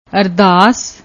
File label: "ardass", Punjabi; ਅਰਦਾਸ